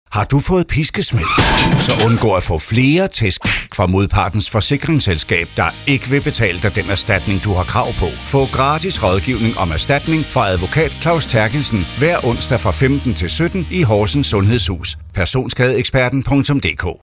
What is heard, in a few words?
Radiospot